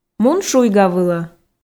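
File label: "мун шуйгавылӧ", Komi, female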